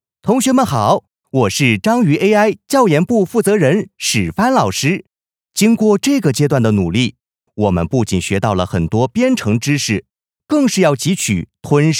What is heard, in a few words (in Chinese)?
课件; 章鱼